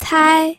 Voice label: "cai1", pinyin